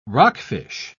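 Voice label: "rockfish", British English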